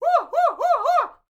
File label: MEXICO VOICE, MEXICAN; ILIO; Vocal Planet VOL-5